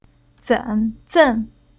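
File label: "Zen", chinese syllable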